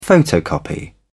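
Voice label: "photocopy", British English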